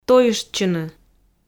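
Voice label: "тойыштчыны", Komi, female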